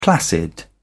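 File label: "placid", British English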